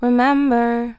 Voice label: "Remember", Female Vocals, Dry